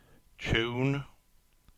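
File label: "Chun-Choone", English; place-names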